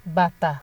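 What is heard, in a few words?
"bata", Nigerian Pidgin, female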